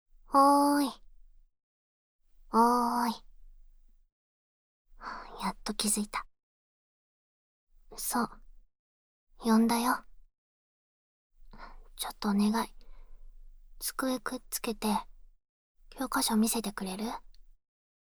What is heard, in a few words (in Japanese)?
授業中に耳舐め囁き手コキ; ASMR; SE無し